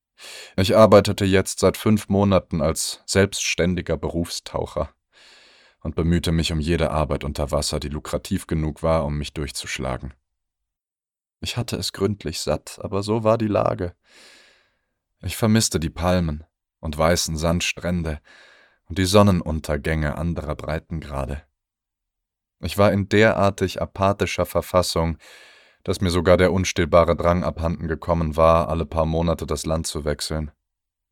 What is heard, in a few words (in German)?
Hörbuch; Die letzte verlorene Stadt